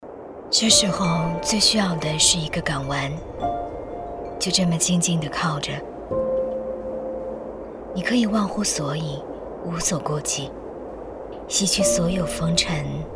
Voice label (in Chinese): 女声; 央视的声音